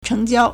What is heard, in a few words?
"cheng2jiao4", Chinese